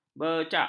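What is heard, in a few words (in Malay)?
"becak", Malay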